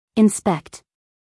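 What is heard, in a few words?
"inspect", American English, female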